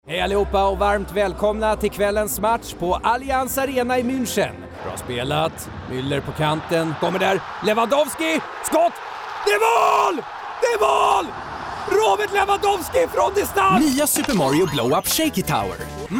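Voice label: mixed Swedish, male voice over; demoreel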